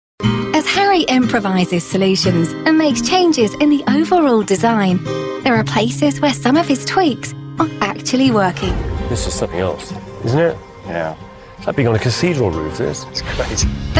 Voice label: TV Narration Promo